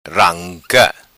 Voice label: "Ranca", Macanese

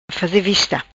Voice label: "Faze Vista", Macanese

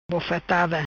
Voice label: "Bofatada", Macanese; Bofetada

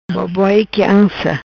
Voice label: "Boboi Quianca", Macanese; embalar; to rock a child

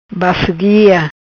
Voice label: "Basso Guia", Macanese

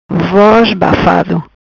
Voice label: "Bafado Voz", Macanese; voz fraca; weak voice

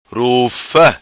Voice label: "Rufa", Macanese

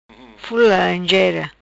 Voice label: "Fula Laranjera", Macanese

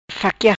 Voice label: "Faquia", Macanese

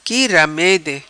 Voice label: "Qui Ramede", Macanese

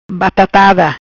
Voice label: "Batatada", Macanese

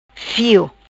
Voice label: "Fio", Macanese

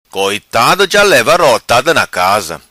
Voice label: "Rotada Coitado", Macanese

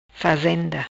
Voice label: "Fazenda", Macanese

